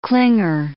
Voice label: "clinger", English

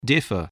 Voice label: "differ", english spelling

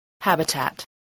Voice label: "habitat", spelling

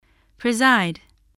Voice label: "preside", voice, spelling

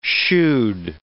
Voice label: "shoed", words

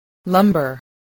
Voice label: "lumber", spelling voice

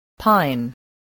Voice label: "pine", voice, English

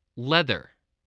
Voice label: "leather", English

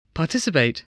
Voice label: "participate", English, voice

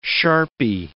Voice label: "sharpy", English

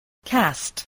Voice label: "cast", spelling

